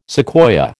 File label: "sequoia", American